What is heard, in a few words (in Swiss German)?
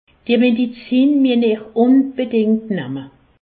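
"medizin", Alsace, Haut Rhin; medecine; prenez bien ces médicaments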